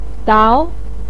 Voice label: "dao2", Chinese pinyin voice